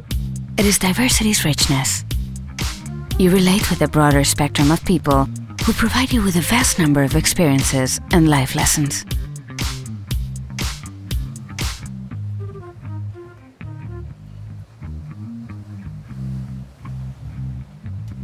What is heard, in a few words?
Conversational Friends Charismatic English